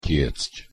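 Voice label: "tiecť", Slovak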